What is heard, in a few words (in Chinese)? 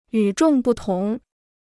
"与众不同", Chinese